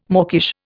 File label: "mawkish", Indian